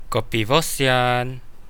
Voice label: hello, kadazan

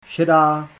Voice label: "grey", Czech

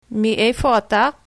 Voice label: where from, hebrew

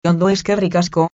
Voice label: "fine thanks", Basque; Ondo, eskerrik asko

